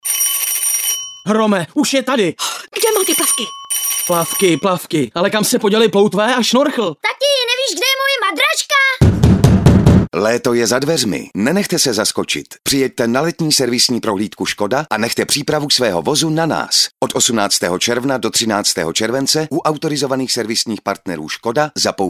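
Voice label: Male Czech commercial mix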